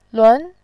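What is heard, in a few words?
"lun", Mandarin, tone 2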